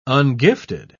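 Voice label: "ungifted", English, uk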